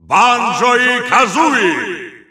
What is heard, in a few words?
Russian Announcer; SSBU